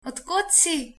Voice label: where from, slovenian